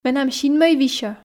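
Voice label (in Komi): менам синмӧй висьӧ, Komi, female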